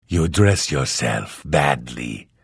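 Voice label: Hello, Vo; Morrowind GOTY GOG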